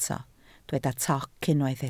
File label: "toc", Welsh